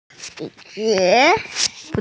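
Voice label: "yu3xie2", Mandarin Chinese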